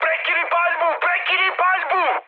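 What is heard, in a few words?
Serbian player friendly fire mood high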